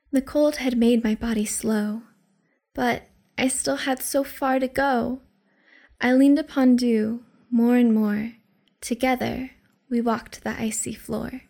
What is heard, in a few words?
Poetry